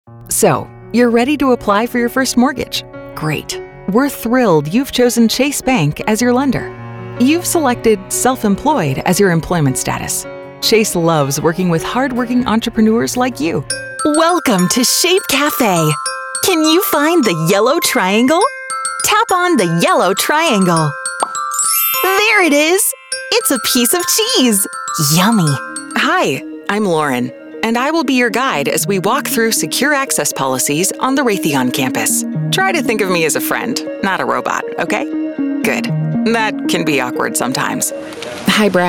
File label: eLearning, female voices